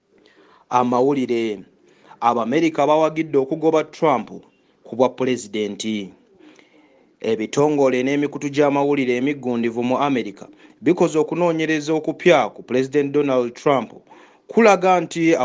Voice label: luganda male

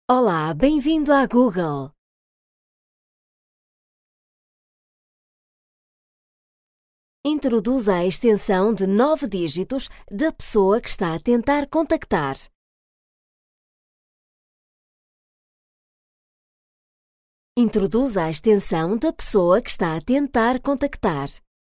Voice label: portuguese europe female

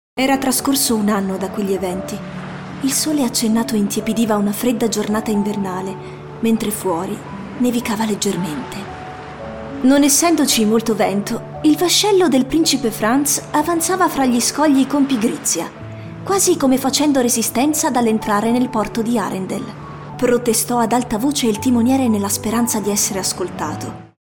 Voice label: italian switzerland female

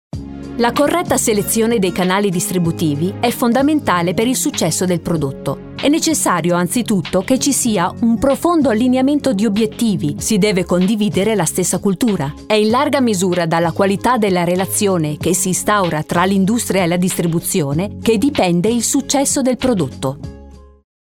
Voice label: italian switzerland female

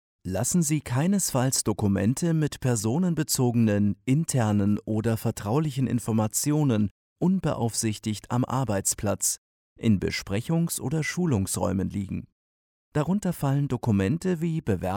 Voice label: german Germany male

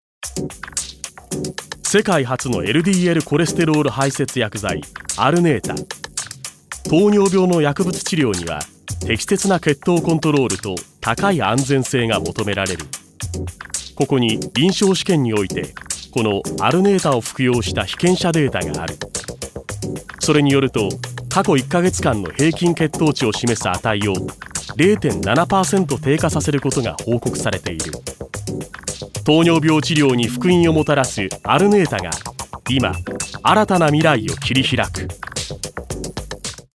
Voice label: japanese male

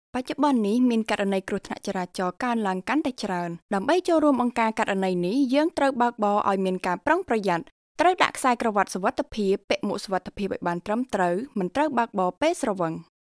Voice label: khmer female

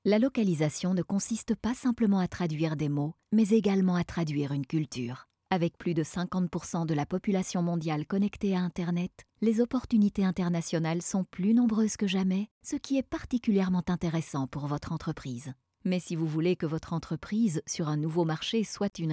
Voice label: french belgian female

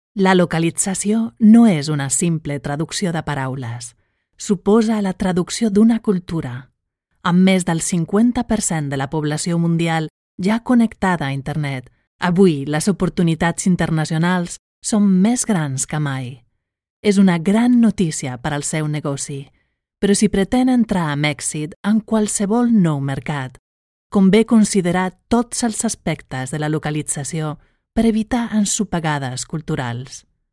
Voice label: catalan female

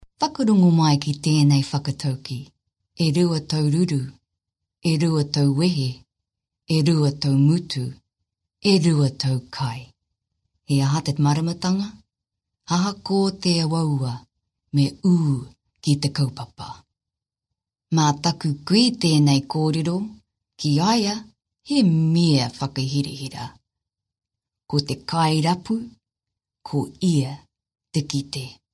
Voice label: maori female

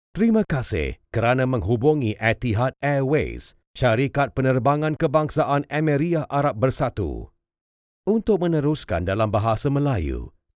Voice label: malay male

